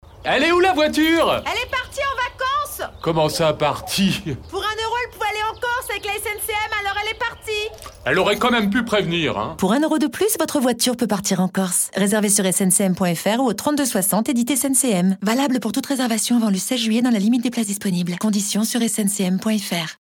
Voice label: comédie signature rapide; SNCM Corse

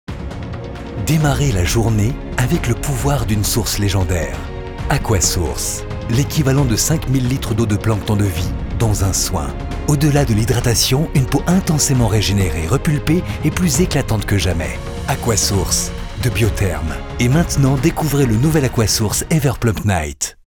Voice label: VOIX FR; Aquasource

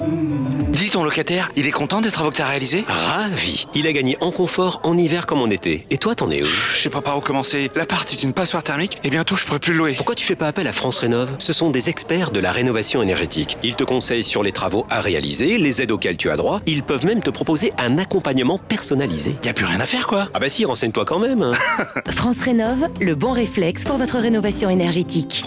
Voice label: Radio; Renov; Proprietaire Bailleur

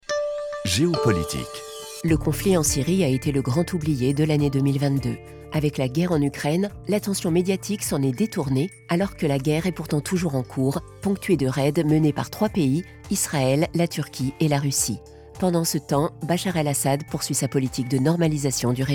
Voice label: journalistique; RFI

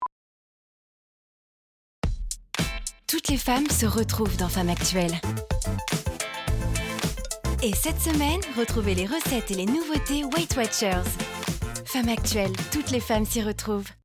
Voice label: Femme actu

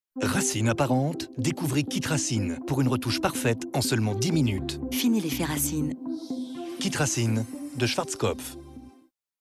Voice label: naturel simple; Schwarzkopf

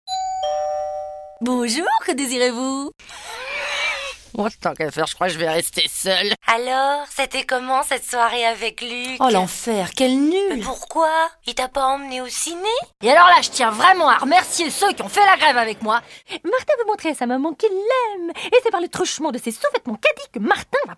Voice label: medley personnages

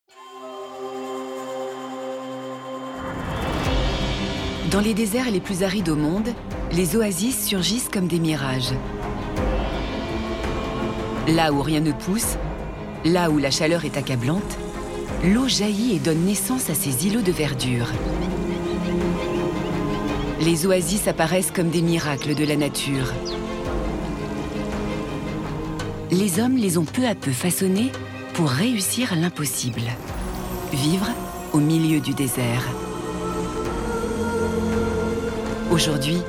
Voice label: docu; oasis

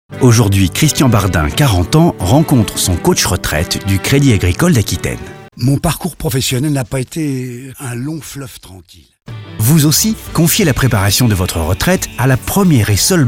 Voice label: RADIO Rassurant et Convaincant; Bourgogne; CREDIT AGRICOLE

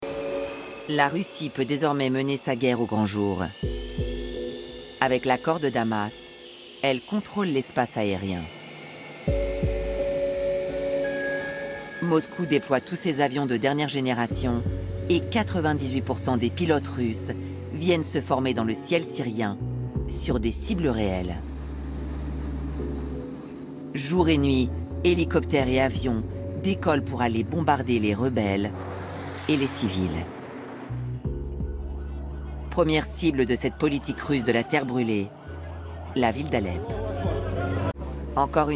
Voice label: narration; Russie labo Syrien; Arte

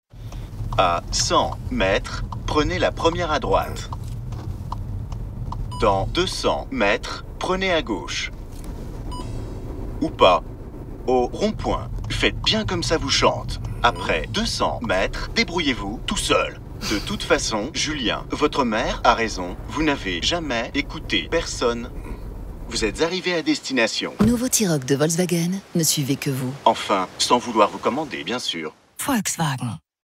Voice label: pub web voix GPS; Volkswagen T-Roc

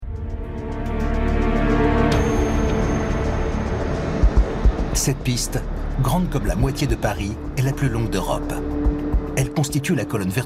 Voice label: Doc Narration; RMC; ISTRES

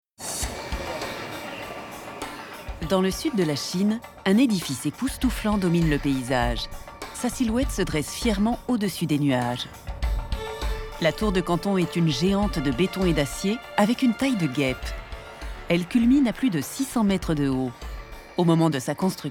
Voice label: docu, tours du monde, voix

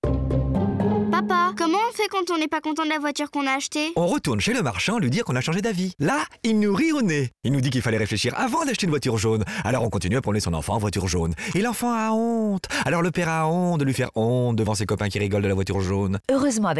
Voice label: ironique rapide; ARAMIS

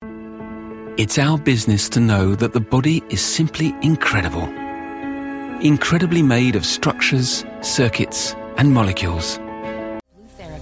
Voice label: Medley Voix Pub

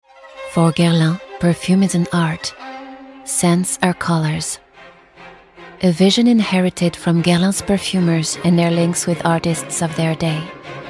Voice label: pub ENG; Guerlain; Maison Matisse

